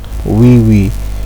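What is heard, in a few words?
"weewe", Nigerian Pidgin, male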